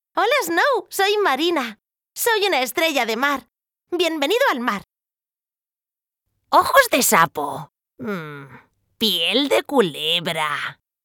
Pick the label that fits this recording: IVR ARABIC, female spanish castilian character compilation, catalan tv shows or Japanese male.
female spanish castilian character compilation